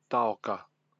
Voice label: "taoka", Māori; history of fiordland